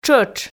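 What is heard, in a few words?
"тшӧтш", Komi, female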